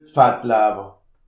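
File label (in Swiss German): "fattlawer", Alsatian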